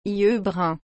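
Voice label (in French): "yeux bruns", French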